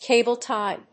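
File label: "cable tie", English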